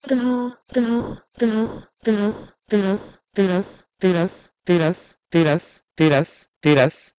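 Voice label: Proto-Indo-European trh2a to Sanskrit tiras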